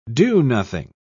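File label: "do-nothing", British English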